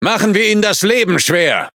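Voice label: Speech Quick Commands Good Luck, German; World Of Warships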